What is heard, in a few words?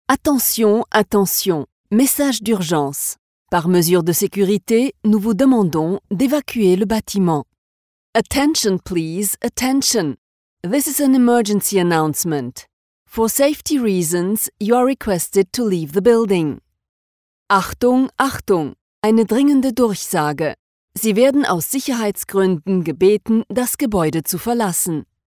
Alarm FR EN DE; Roche